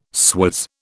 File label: "swizz", British